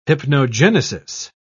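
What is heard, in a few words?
"hypnogenesis", British English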